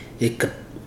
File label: "axe", Ibibio